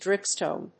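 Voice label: "dripstone", English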